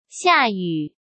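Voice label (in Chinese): "下雨", Mandarin Chinese; chinese flashcards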